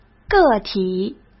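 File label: "个体", Mandarin Chinese; chinese flashcards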